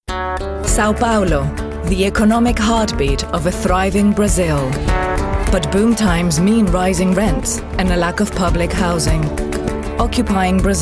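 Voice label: Spanish Latin American English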